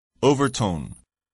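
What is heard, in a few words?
"overtone", English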